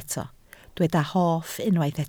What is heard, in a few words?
"hoff", Welsh